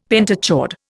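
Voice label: "pentachord", Indian English; Dictionary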